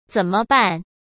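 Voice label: "怎么办", Mandarin Chinese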